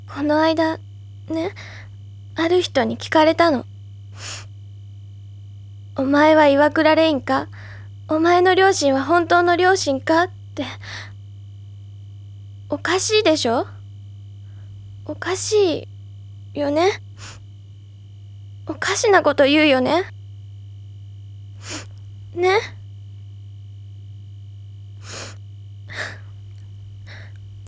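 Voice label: Real parents, Japanese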